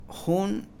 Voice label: "uno", Mam; Números; INALI

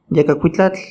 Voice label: "yakakuitlatl", Náhuatl; moco nasal; nasal mucus

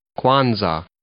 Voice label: "Kwanzaa", English

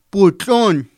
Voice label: "putlun", Totonaco; lodo

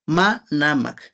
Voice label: y ahora manamak and now, Náhuatl

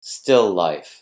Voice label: "still life" noun

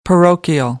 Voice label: "parochial", American English; oʊ - əʊ

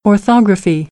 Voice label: "orthography", American English; ɑː - ɒ

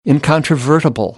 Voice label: "incontrovertible", American; English; ɑː - ɒ

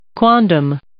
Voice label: "quondam", English

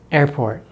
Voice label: "airport", English; easypronunciation

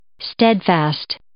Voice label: "steadfast", English

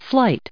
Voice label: "flight", English; noun

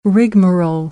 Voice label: "rigmarole", American English; oʊ - əʊ

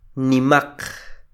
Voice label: "nimaq", Quiché; grandes(plural atributivo); big(plural attribitive)

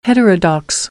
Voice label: "heterodox", American; English; ɚ - əɹ

